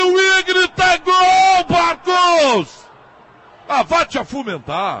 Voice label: narra gol perdido

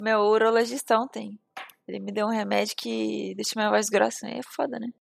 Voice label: voz grossa

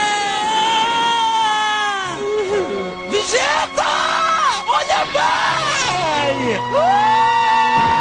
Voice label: dublagem de portugal; dragon ball z

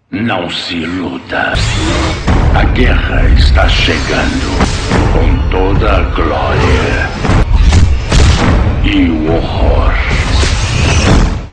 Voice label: introducao em portugues; starcraft 2

